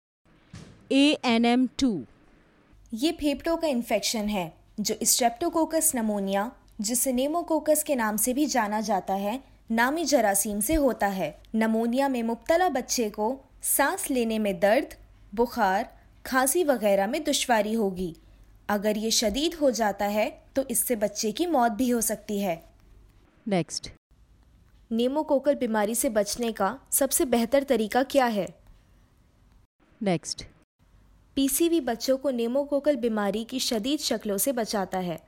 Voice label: urdu sample